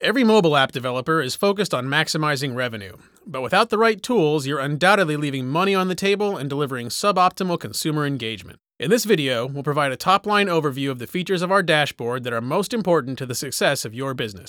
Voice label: U87 Voice